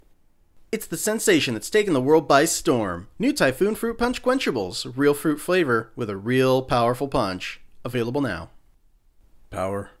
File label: Commercial VO